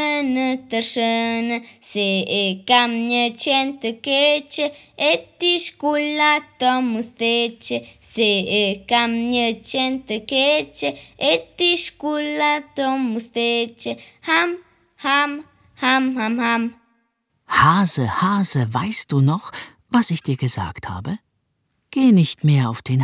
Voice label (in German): Albanisch, Kinderverse